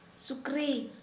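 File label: Santali female pig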